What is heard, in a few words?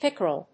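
"pickerel", English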